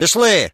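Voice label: talk, Ukrainian; combat ukr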